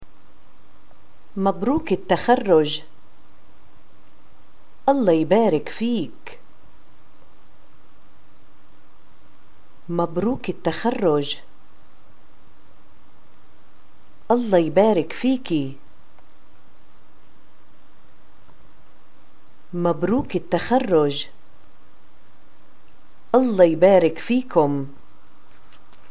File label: greeting graduation, arabic